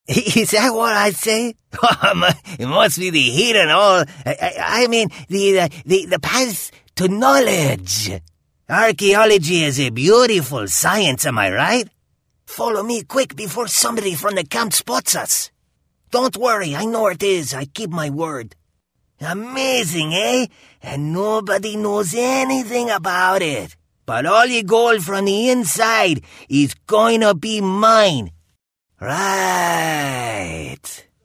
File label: Mexican accent voiceover